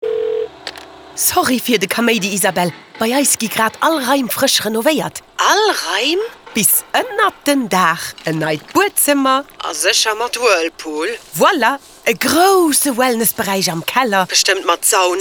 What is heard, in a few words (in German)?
Komplettsanierung Radiospot; Hausmann